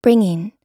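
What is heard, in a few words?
"bringing", American English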